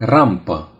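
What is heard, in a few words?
"ramp", Romanian; gcompris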